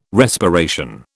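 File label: "respiration", British English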